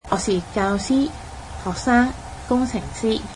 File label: cantonese Im a student